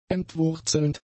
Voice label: "entwurzelnd", German; adjectives